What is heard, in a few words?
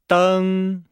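"deng", Chinese; Nihao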